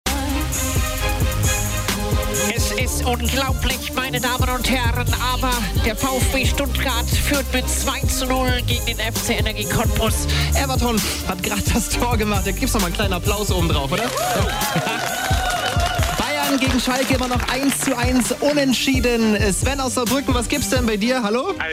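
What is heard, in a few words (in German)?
AirCheck Fußball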